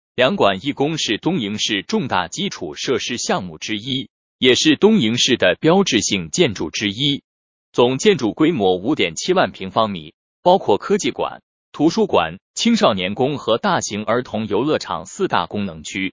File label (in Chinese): 配音